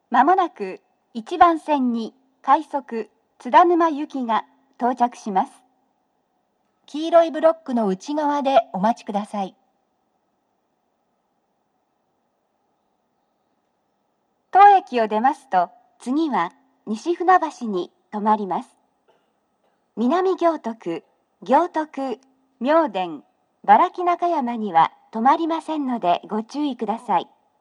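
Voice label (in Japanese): 接近放送, 女声, 放送は「快速 津田沼行き」です。; TOA円型での収録です。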